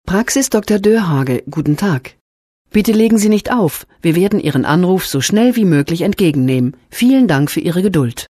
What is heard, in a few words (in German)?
Ansage vor Melden; Praxis Dr Doerhage